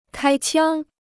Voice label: "开枪", Chinese

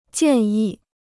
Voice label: "建议", Chinese

